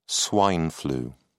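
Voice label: "swine flu", British English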